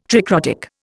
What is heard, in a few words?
"tricrotic", Indian